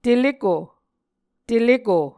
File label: "teleko", muscogee